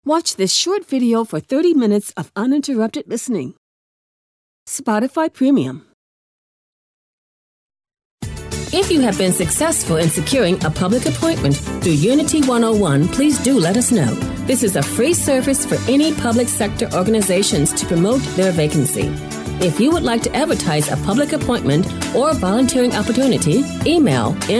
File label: Radio Imaging